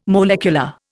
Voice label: "molecular", Indian